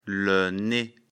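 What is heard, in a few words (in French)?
"nez", French; afrikalan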